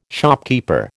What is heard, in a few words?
"shopkeeper", American